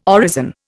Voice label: "orison", Indian